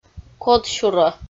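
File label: "кодсюрӧ" Komi, female; audio dict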